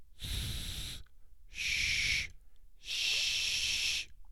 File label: sibilant